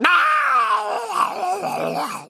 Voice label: male murloc death